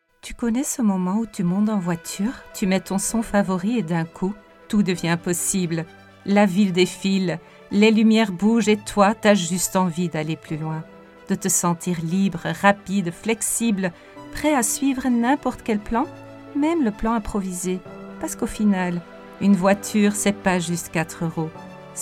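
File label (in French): Commercial dynamic avec son, French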